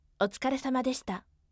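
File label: voice otsukaresamadeshita firm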